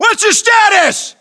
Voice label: status check, us

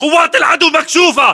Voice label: threat infantry exposed, battle chatter, english

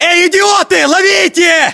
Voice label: inform attack grenade, battlechatter, ru

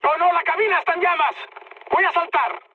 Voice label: on fire, mood high, speech; War Thunder

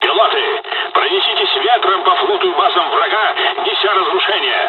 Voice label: japanese first wave, dialogs russian; War Thunder

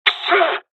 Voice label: dialog, dialogs japanese; War Thunder

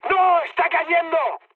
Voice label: aircraft destroyed mood high; War Thunder

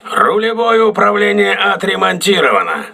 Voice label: rudder repaired, crew ships russian

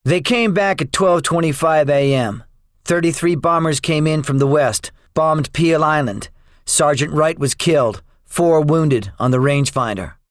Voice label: debriefing, english; War Thunder

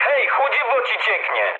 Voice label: damaged water mood low, speech pol; War Thunder

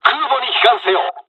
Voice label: fly away, dialogs japanese; War Thunder

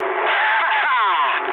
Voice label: trick, speech ru

